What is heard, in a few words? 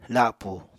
"lapù", Apatani